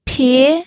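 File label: "pie", Mandarin Chinese, second tone; pinyin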